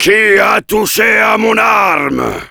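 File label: Heavy taunts, French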